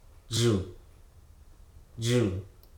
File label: "green", Hruso